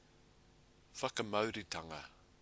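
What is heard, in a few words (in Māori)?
"whakamaurutanga", Māori word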